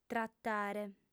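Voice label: "tratare", Sardinian, female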